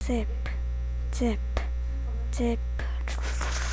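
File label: "zip", spoken word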